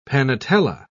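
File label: "panatela", British English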